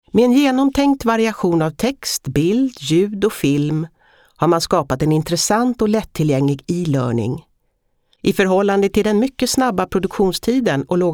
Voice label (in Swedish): svensk kvinna